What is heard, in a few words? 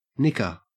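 "nicker", Australian English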